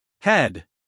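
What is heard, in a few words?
"head", American English, male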